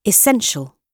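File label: "essential", British English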